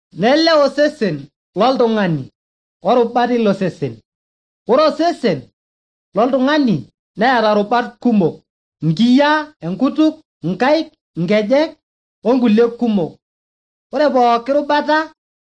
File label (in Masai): maasai bsv nt drama; good news; bible